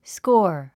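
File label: "score", English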